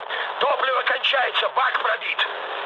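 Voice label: tank damaged, mood low, Russian; War Thunder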